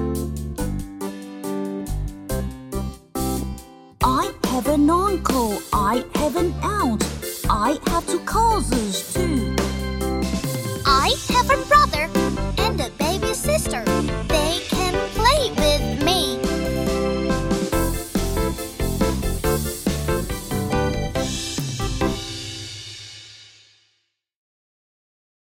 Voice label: Listen and chant